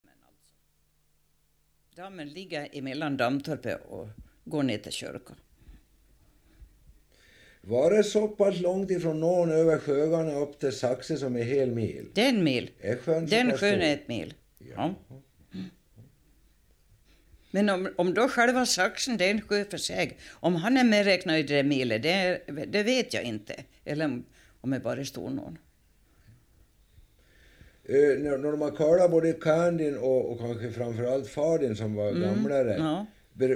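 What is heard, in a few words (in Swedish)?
Hedemora Dalarna, dialekter; Folkminnen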